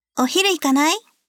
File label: Vo Female Ohiruikanai; gekiban